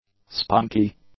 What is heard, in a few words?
"spunky", english; adjectives